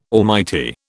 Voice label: "almighty", British